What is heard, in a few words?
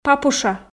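"папуша", Komi, female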